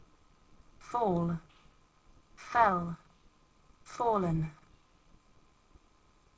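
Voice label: "fall", English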